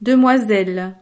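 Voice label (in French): "demoiselle", French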